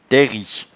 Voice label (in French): "tairi", patois jura